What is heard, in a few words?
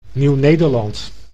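"Nieuw Nederland", Dutch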